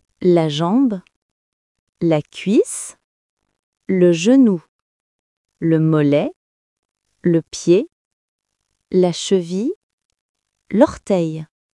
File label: Body parts in french